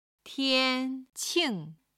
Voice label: "tian qing" Chinese

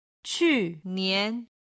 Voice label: "qu4nian2", Chinese

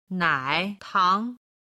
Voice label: "nai tang", Chinese

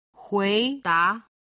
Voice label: "hui2da2", Chinese

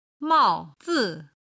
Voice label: "mao4zi5", chinese

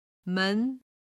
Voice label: "men2", Chinese

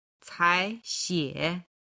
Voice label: "caixie", Chinese

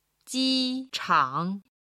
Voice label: "ji1chang3", Chinese

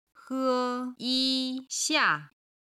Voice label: "he1yi1xia4", Chinese

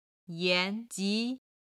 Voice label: "yan2ji2", chinese

